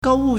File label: "gou4wu4", Chinese